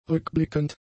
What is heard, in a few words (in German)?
"rückblickend", German; adjectives